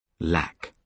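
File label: "lack", British English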